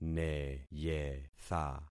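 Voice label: "neyetha", concatenated syllables; Affixing experiment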